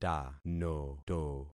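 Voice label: "tanoto", concatenated syllables; Affixing experiment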